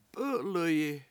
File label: "p7!7l1yi", Washo; Hes Fishing